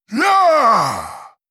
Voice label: witch doctor yes attack, zh